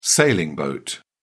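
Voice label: "sailing boat", British English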